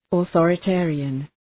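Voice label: "authoritarian", English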